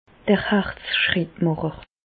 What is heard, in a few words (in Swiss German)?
"herzschrittmacher", Alsace, Bas Rhin; medecine; pace maker